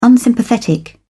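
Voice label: "unsympathetic", British English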